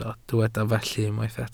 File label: "felly", Welsh